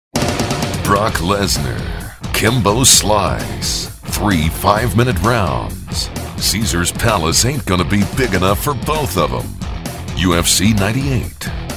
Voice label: Comm, male voice talent

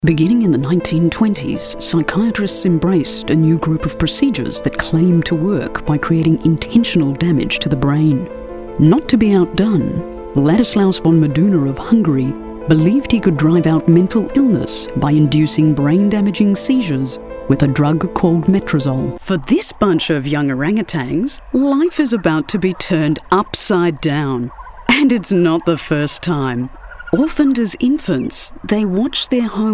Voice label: Australian Accent; foreign languages

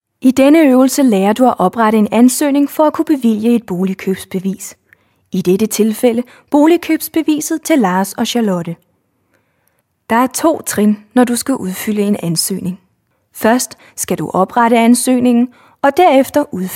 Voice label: Danish; foreign languages

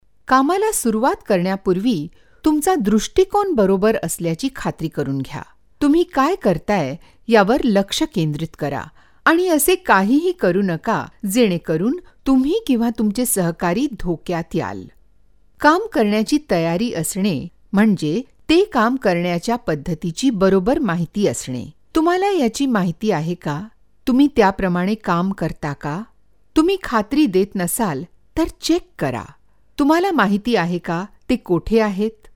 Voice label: Marathi; foreign languages

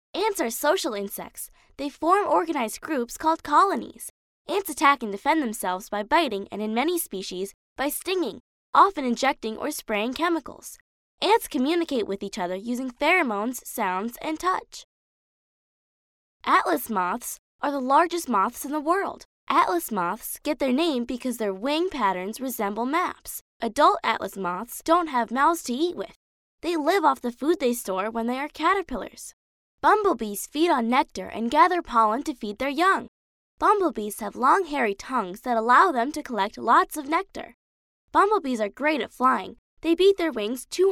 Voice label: girl; kid

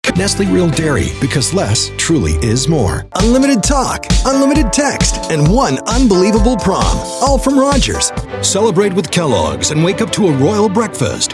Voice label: Comm, male voice talent

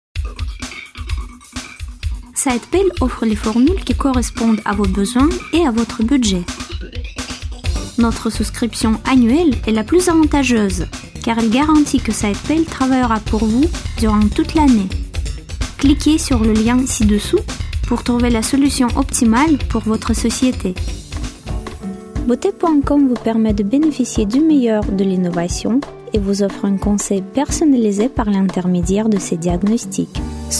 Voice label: European French; foreign languages

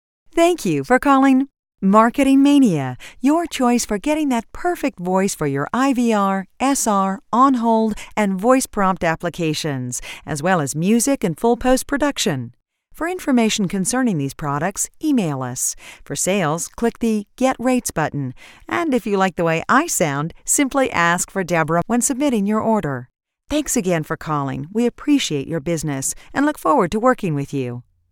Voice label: Voice Sample, onhold

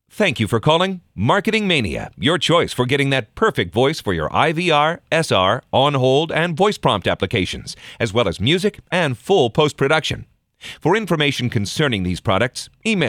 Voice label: Voice Sample, onhold

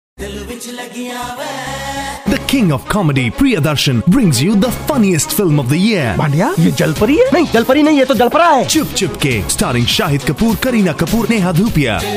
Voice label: Hindi

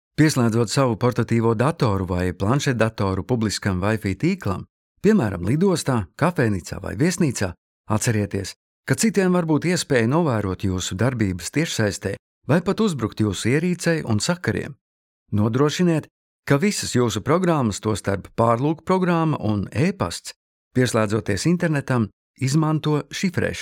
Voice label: Latvian; foreign languages

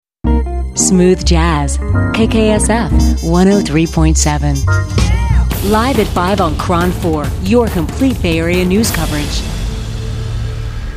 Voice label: Imaging, female voice

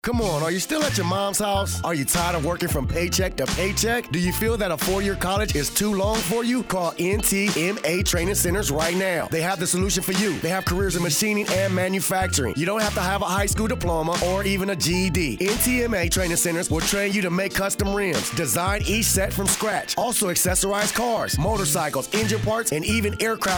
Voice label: African American; foreign languages

